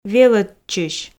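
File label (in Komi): "велӧдчысь", Komi, female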